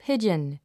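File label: "pigeon", English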